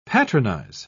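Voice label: "patronise", British English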